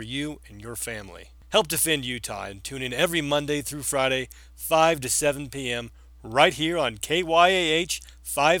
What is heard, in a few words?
radio promo